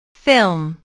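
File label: "film", English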